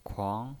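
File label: "kuang", pinyin, Mandarin Chinese, second tone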